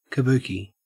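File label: "kabuki", Australian English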